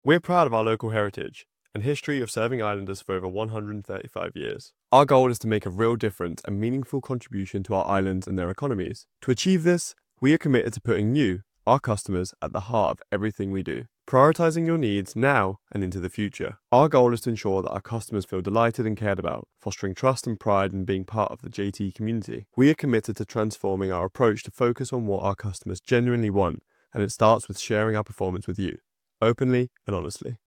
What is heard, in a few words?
voiceover